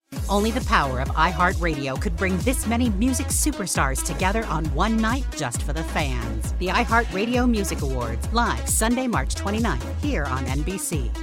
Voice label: Music Promos

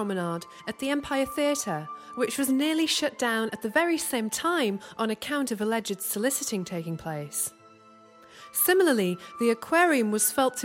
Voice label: Documentary Voiceover French Posters

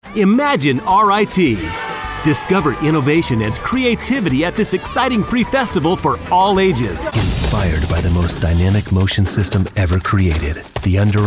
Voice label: Commercial Retail

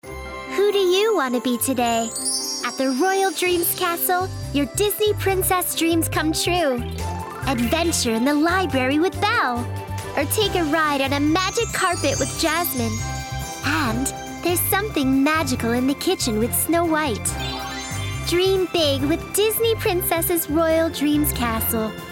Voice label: Child Whimsical; Disney

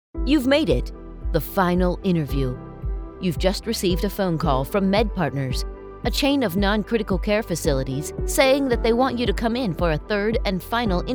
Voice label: E learning

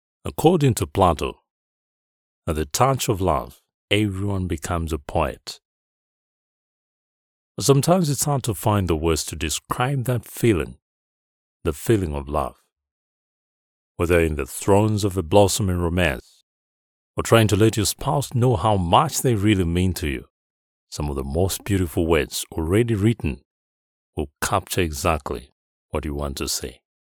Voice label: Poetic

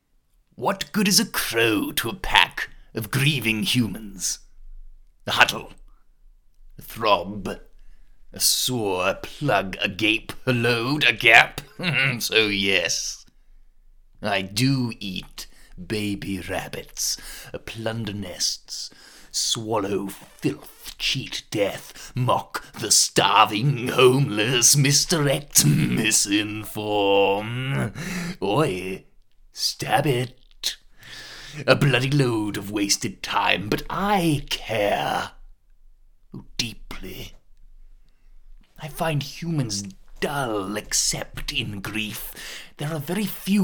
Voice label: contemporary read; Crow

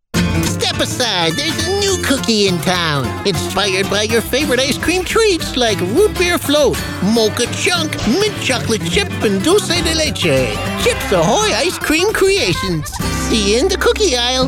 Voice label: character voice; Chips Ahoy

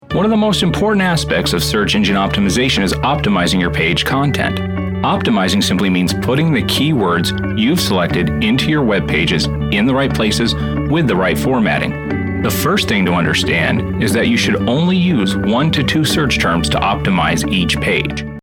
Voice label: E-Learning Narration

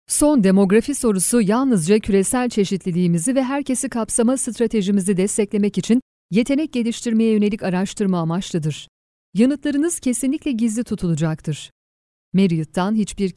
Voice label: Narrator

